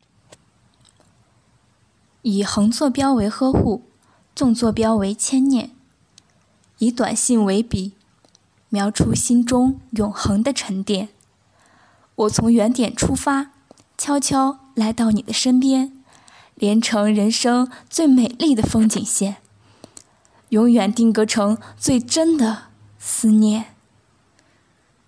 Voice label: 配音

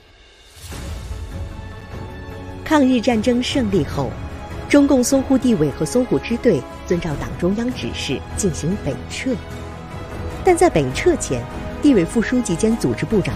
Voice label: 红色阵地宣传介绍

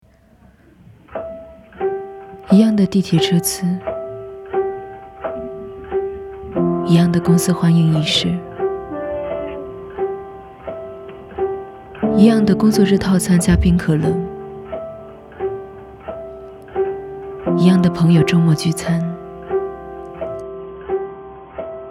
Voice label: 旁白 微电影

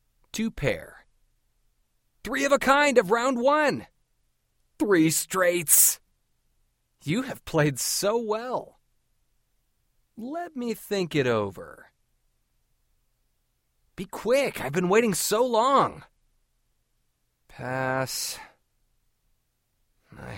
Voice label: 外籍英男录音

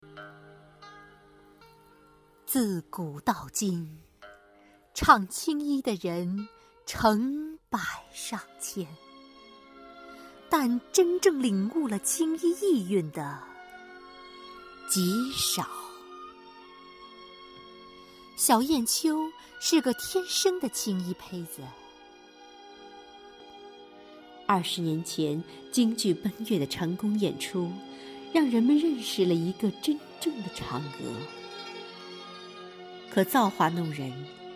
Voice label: 青衣片段

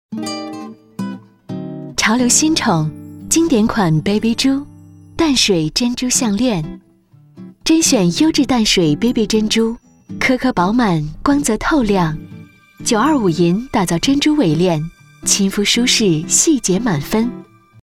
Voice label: 广告甜美柔和珍珠项链

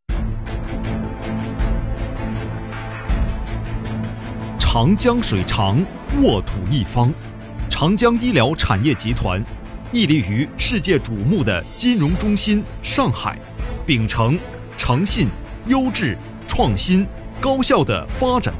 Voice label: 政企宣传

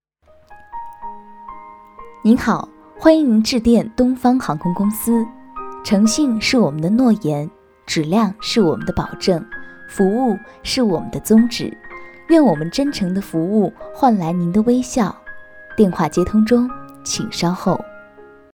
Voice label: 彩铃; 东方航空彩铃

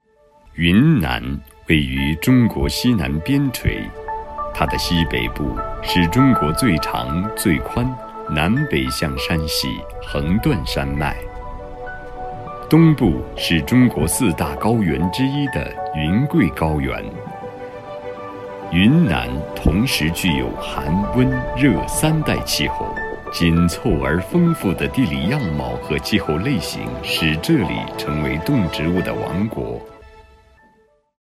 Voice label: 饱满大气

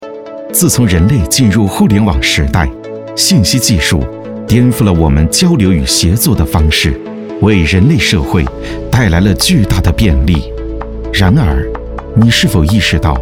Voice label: 温暖磁性; 互联网

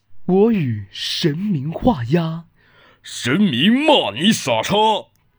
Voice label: 商业广告

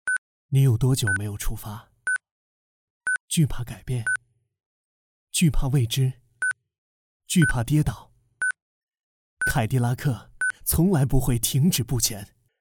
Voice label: 汽车广告

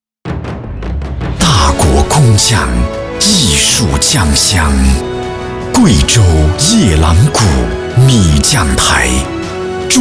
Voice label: 大气尊贵; 夜郎古酒央视播出版本

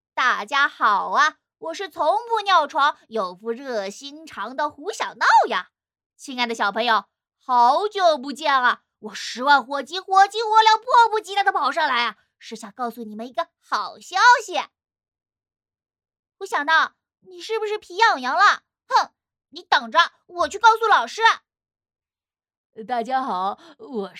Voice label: 儿童笑话; 胡小闹

